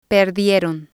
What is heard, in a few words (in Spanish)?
"perdieron", spanish